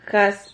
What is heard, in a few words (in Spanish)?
"jaz", Silabas